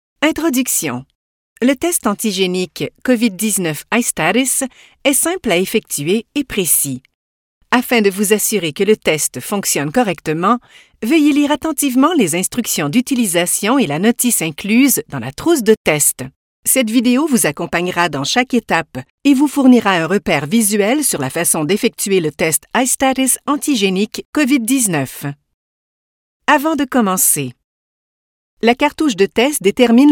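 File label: Canadian French, Educational; GoLocalise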